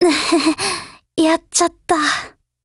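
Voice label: BREAK, Japanese; GF Alarm; Colt SCW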